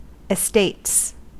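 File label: "estates", US English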